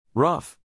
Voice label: "ruff", English, neural; animal sounds in english; Stephen

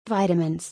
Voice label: "vitamins" (US); Salli; American English

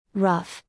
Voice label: "ruff", English; звуки животных на английском; Salli

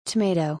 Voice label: "tomato" (US); Salli; American English

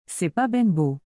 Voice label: "C’est pas ben beau.", Québécois French; It’s not very nice.

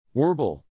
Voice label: "warble", English, neural; animal sounds in english; Stephen

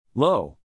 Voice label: "low", English, neural; animal sounds in english; Stephen

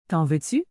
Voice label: "T'en veux-tu ?", Québécois French; Would you like some?

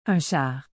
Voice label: "un char", Québécois French

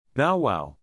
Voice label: "bow-wow", English, neural; animal sounds in english; large dogs; Stephen

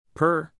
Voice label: "purr", English, neural; animal sounds in english; Cats; Stephen

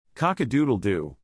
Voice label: "cock-a-doodle-do", English, neural; animal sounds in english; rooster; Stephen

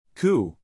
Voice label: "coo", English, neural; animal sounds in english; Doves and pigeons; Stephen

